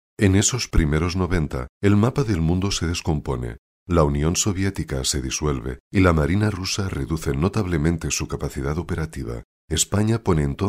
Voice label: locutor spanish voiceover

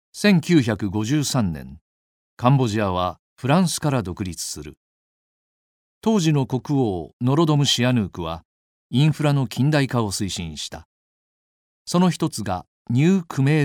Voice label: japanese voiceover locutor japones

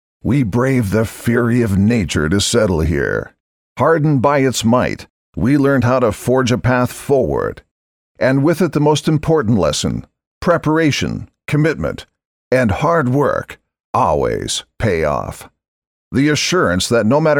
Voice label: locutor norteamericano american english voiceover; locutores norteamericanos USA

